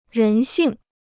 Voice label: "人性", Chinese